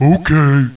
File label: acknowledge, ogre; Okay.